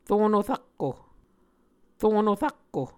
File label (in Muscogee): "rono rakko", muscogee; Onvkv Ohhvyayices; A Dictionary of Creek/Muskogee; SIL International